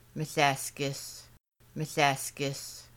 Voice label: I am stirring it, Kaska; Making Bannock

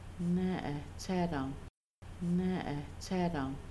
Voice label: Have you eaten, Kaska; Making Bannock; Have you 3 eaten

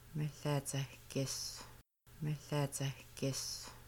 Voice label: We are stirring it, Kaska; Making Bannock

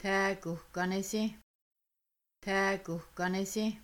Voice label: They might dry lots, Kaska; Washing Dishes

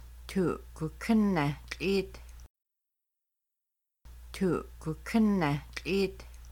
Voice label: You are pouring water, Kaska; Making Bannock

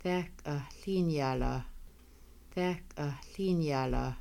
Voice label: When did, Kaska; Two People See Each Other in Whitehorse; When did you arrive

